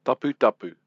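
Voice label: "taputapu", Māori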